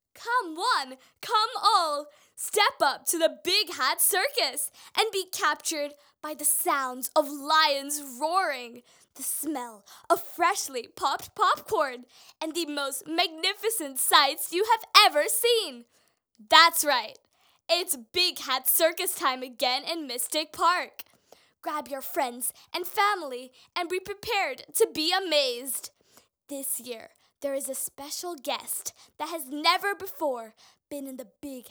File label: VO Voice